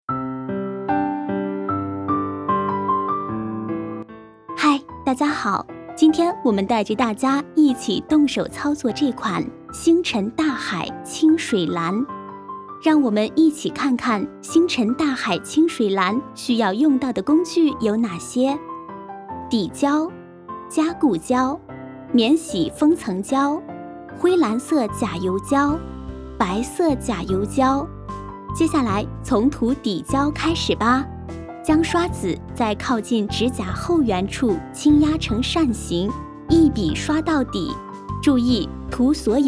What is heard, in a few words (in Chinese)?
女 专题 产品介绍 音乐